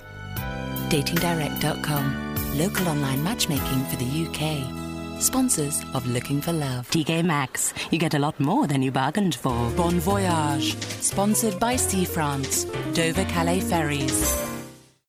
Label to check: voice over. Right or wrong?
Wrong